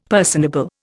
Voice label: "personable", Indian; Dictionary